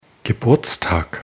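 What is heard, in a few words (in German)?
"Geburtstag", German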